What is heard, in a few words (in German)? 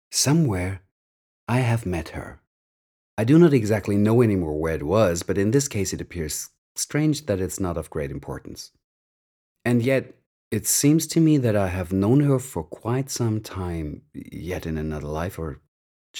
Englisch